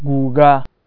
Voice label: bucket, Hausa